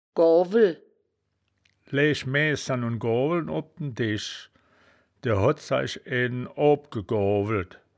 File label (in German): "goawel", wittlich; das wittlicher woerterbuch; woerterbuch vertonung